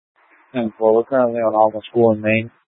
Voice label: Public Transit System Light Rail Security, scanner; Regional Wireless Cooperative (RWC); Valley Metro; Thompson Peak High